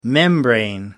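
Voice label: "membrane", British English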